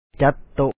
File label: ຈັດໂຕ໊ະ ca2t to set the table, Lao